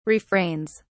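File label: "refrains", English, uk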